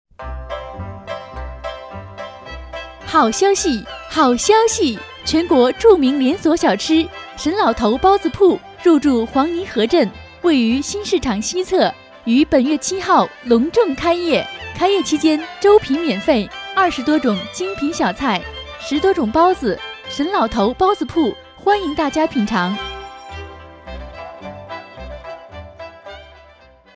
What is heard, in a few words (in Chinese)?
女 开业 沈老头包子铺（年轻）